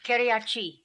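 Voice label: "Keria Chi", pronunciations; Fourth Way; Beelzebub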